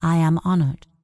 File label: Night Elf Female Priestess NPC Greeting